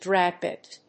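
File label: "drag bit", English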